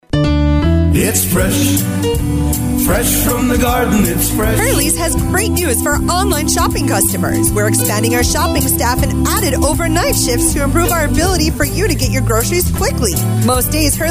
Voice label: english us and canada female generic